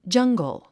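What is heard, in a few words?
"jungle", English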